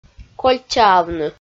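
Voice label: "кольчаавны", Komi, female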